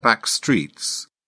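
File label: "backstreets", British English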